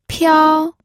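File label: "piao", Mandarin Chinese, first tone; pinyin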